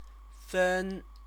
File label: "fen", Chinese; 分; divide, allocate; verb; Chinese lessons